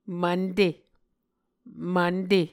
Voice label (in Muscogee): "mvnte", Muscogee